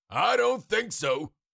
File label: Cartoon lion voice, "I don't think so"